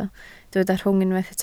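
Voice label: "rhwng" Welsh, spoken word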